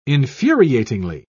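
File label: "infuriatingly", British English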